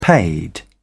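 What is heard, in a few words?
"paid", British English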